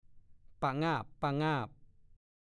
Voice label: "ปะงาบ ๆ", ภาษาโคราช

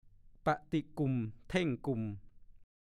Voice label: "ปะติกุ่มเท่งกุ่ม", ภาษาโคราช; อาการที่นกติดแร้วกระโดดไปกระโดดมา

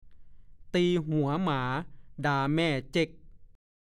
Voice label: "ตีหัวหมาด่าแม่เจ๊ก", ภาษาโคราช; ตีหัวหมาด่าแม่เจ๊ก / 1. (สำนวน) ทำเก่ง หรือ รังแกคนที่ไม่มีทางสู้

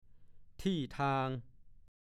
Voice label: "ที่ทาง", ภาษาโคราช; หน้าที่ กิจที่ควรทำ

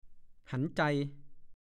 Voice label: "หันควก", ภาษาโคราช; เหลียวขวับ หันขวับ

